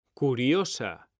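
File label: "CURIOSA", Spanish; mekanta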